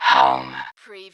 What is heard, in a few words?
Robot voice, "home"; lynne publishing